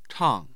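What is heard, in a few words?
"chang", Mandarin Chinese, tone 4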